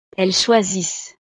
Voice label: "elles choisissent", frances

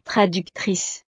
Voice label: "traductrice", frances; profesiones

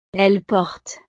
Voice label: "elles portent", frances

